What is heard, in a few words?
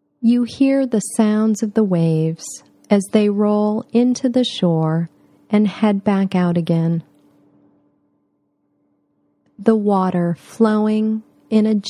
Adult Ocean Front Guided Imagery